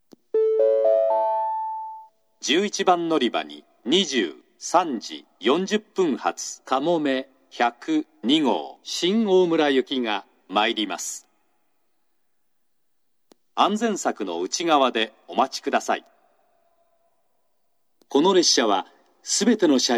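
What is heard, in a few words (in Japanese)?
11番のりば接近予告放送, 男声, 西九州新幹線ホーム 自動放送; 11番のりば接近予告放送（かもめ102号 新大村行き）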